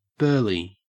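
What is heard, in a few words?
"burly", Australian English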